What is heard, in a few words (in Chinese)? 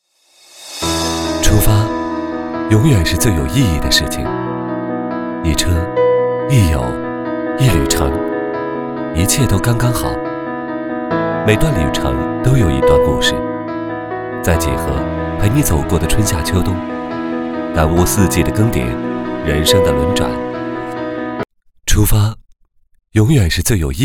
男 独白 出发